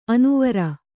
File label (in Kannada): "ಅನುವರ", kannada